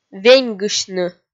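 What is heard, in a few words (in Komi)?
"веньгысьны", Komi, female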